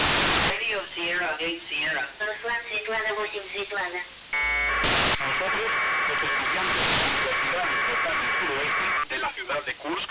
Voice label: spanish